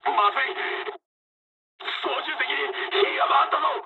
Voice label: on fire mood high, speech jp; War Thunder

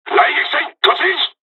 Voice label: japanese, dialogs japanese; War Thunder; first wave

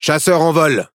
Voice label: Speech Pilots Cat Fight Launch, FR; ConsPilots

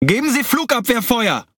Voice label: Speech Quick Commands Need AA, DE; World Of Warships

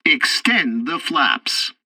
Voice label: tutorial landing preparation, english; War Thunder

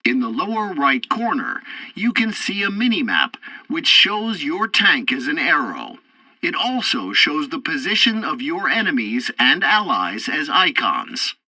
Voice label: tutorial tank arcade map explanation, english; War Thunder

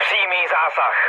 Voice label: bomb success, speech cze, mood low; War Thunder

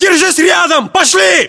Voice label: order move follow, ru; localized english

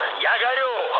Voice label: on fire, mood med, speech ru; War Thunder

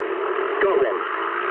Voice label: eng player killed enemy mood low, speech eng

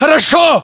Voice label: response ack norank yes, battlechatter ru; localized english

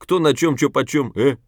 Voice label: scav mutter, voice; Escape from Tarkov; scav5

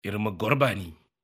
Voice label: Neutral Greeting; Civ5; Askia

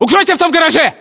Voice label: order cover garage, ru

